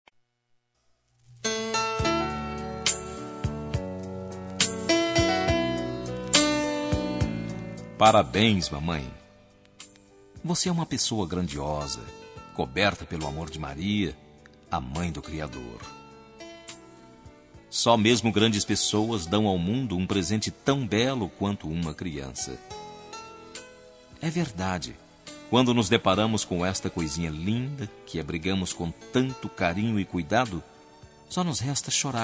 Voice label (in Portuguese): MATERNIDADE MASC